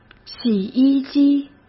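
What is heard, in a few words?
"洗衣机", Mandarin Chinese; hsk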